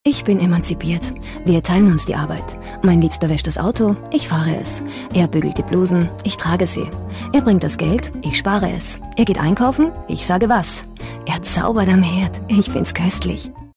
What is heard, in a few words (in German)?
AT Freundlich; Fremdtake